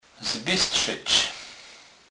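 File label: "zbystrzec", Polish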